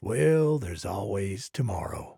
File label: trader male like quest declined